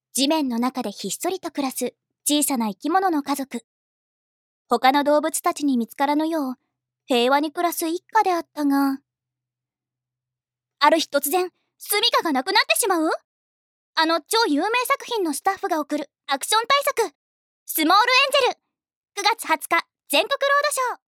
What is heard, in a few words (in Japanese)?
ナレーション